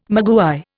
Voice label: "maguey", Indian